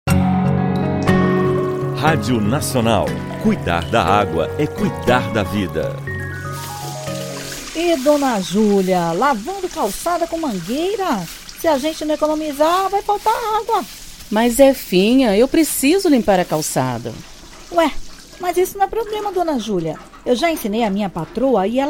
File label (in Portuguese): spot; agua lavar calcada com agua da maquina de lavar